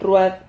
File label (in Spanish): "rruad", Silabas